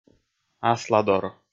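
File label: "асладор", Komi, male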